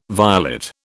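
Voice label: "violet", British English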